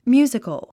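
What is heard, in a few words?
"musical", English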